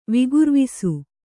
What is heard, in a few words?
"ವಿಗುರ್ವಿಸು", Kannada